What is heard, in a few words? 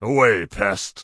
Tauren Male Elder Pissed; NPC